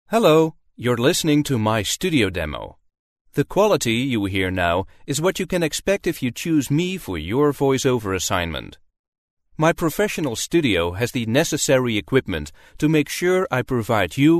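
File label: Dutch English, male, accent of the netherlands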